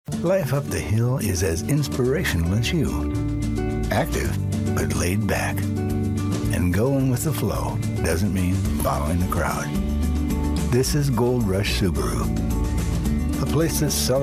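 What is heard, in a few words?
Commercial Male, Storyteller, Trustworthy, Mature; Commercial Voiceover, TV and Radio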